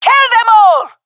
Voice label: attacking, jungle fem; vo; agents; Source Model Packs; Counter Strike Model Pack; CS2; jungle fem epic

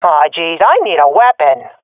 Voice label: request weapon, swat fem; Counter Strike Model Pack; CS:GO

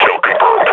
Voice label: announce kill, combine grunt; Half Life Model Pack

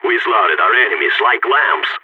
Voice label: radio bot end clean, balkan; vo

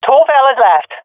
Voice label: enemies left two, swat fem; vo; Counter Strike Model Pack; CS:GO

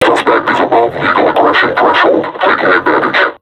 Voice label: taunt, vo combine suppressor; Half Life Alyx

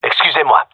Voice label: sorry, gendermerie male

